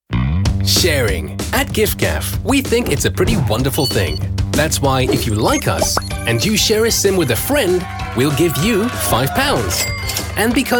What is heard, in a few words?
commercial